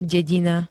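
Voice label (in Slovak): "dedina", Slovak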